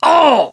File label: hurt minor, survivor voice mechanic